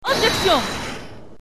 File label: Objection, French